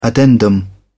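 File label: "addendum", English, British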